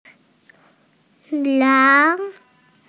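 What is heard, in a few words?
"nan2", Chinese, female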